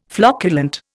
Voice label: "flocculent", Indian

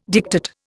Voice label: "diktat", Indian

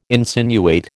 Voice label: "insinuate", American

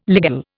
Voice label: "ligule", Indian

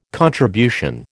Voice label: "contribution", American

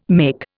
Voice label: "make", Indian

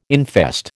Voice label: "infest", American

